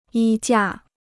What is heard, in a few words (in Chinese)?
"衣架", Chinese